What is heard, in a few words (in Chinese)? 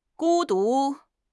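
"孤独", Chinese; HSK6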